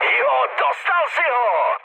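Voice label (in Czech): Czech player killed enemy, mood high; speech; War Thunder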